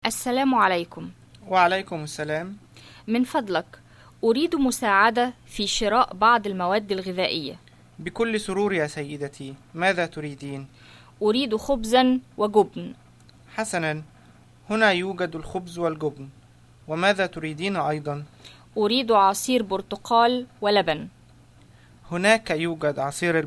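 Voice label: Modern Standard Arabic food shopping, dialogues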